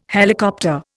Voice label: "helicopter", Indian